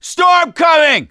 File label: misc directional, voice; gambler